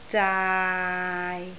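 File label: "zaai6", Cantonese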